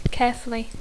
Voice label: "carefully", English; adverbi; amb compte; amb cura; curosament